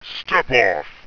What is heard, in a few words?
step off, rumbly; attack